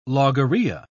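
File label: "logorrhoea", British English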